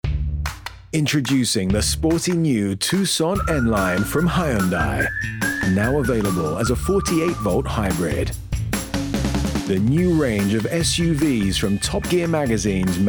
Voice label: Commercials Montage, 30/40's London/Neutral, Contemporary/Textured/Cool